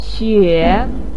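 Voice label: "xue3" Chinese syllable, pinyin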